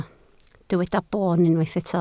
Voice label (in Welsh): "boon" Welsh